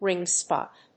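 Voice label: "ring spot", English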